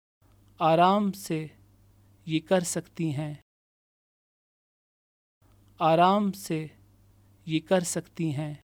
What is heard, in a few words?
Take it easy! can do it, Urdu, female